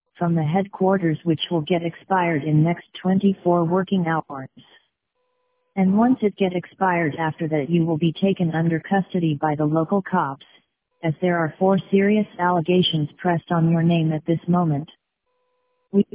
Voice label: robocall example; robocalls threatening arrest